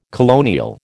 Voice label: "colonial", American